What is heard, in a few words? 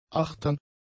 "achtern", german; adjectives